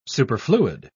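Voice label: "superfluid", English, uk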